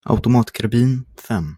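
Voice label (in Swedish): "Automatkarbin", Swedish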